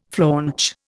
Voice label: "flaunch", Indian